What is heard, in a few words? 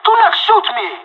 friendly fire, pirate; Counter Strike Model Pack; CS:GO